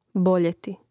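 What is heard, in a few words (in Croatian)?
"boljeti", Hrvatski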